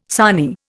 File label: "sarnie", Indian